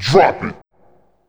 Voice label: DROP IT VOX L, Big Beat Samples; AKAI; SPEAKS KIT